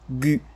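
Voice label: "gu", French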